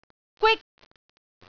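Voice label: "gwik1", Cantonese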